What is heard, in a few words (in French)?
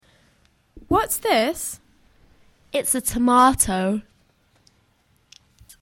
anglais dial